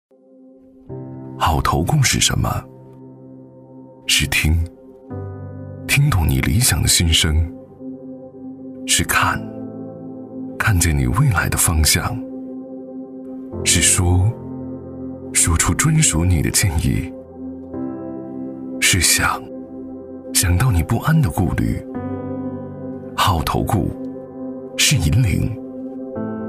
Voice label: 男 走心宣传 走心低沉; 中信建投; 时尚大气; 质感磁性

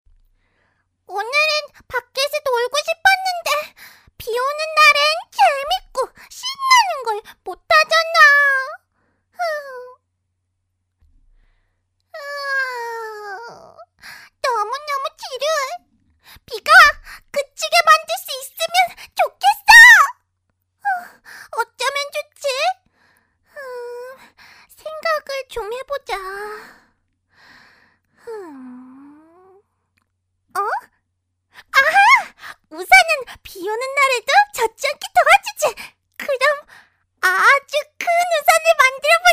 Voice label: 韩语女声 仿女童

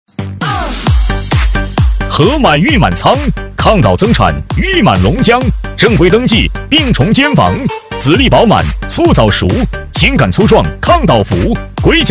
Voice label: 激情有力男; 激情力度; 禾满农业出品

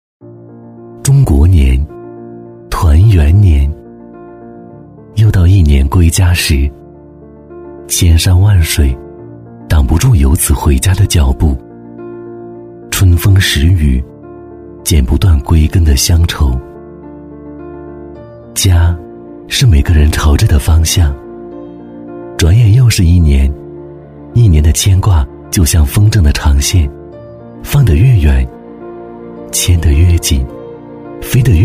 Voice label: 男, 宣传片, 磁性唯美; 男90-高端质感 质感磁性; 大连亿达

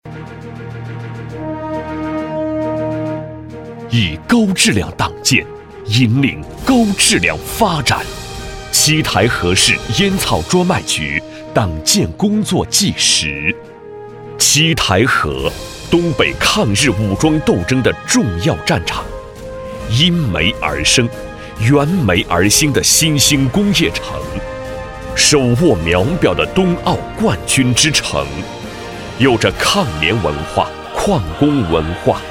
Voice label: 男 党建专题 烟草专卖局 大气沉稳; 年轻激情; 大气浑厚

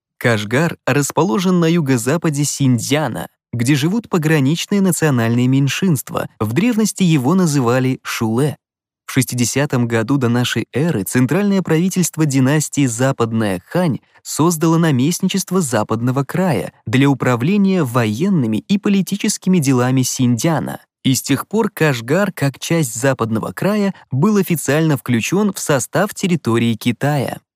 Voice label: 俄语男声

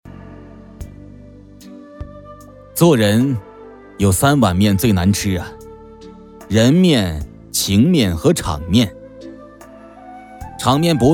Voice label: 男 香港TVB腔, 年轻全能 素人自然

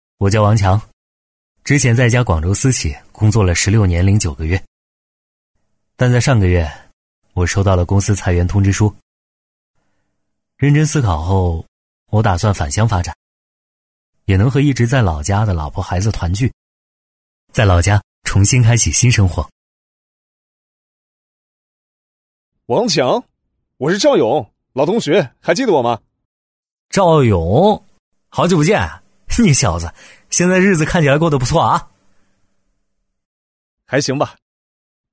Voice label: 男C9-角色对话-口语化生活化对话-20-30岁, 年轻质感 素人自然

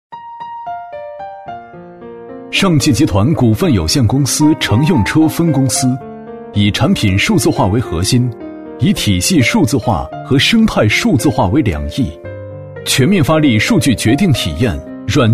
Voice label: 男 专题汇报片 智能汽车 舒缓讲述; 男212-厚重有力 自然诉说

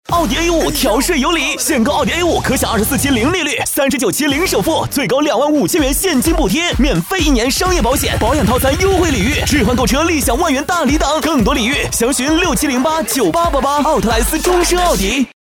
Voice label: 男-广告-快速激情, 全能型 激情力度

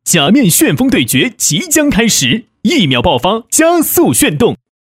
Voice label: 男 游戏广告 信息流广告 年轻有力度; 大气激昂

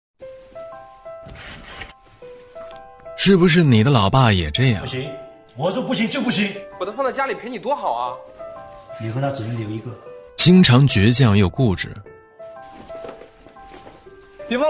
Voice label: 男 年轻素人旁白, 时尚质感 自然诉说; 飞科 父亲节

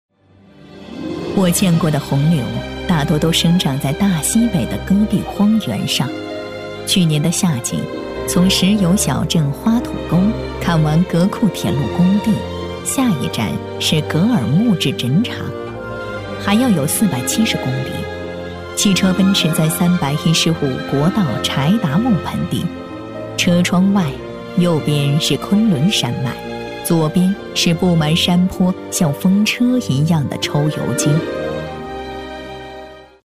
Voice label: 女 专题配音 高原红柳-赞颂, 深情感人

